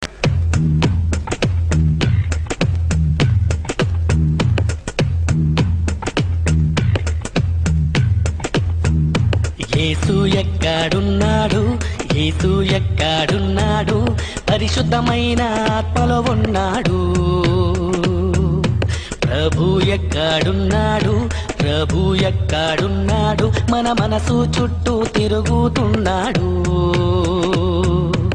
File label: comedy; ringtones; funny